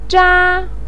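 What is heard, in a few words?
"zha1" Chinese syllable, pinyin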